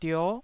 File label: "dio9", taiwanese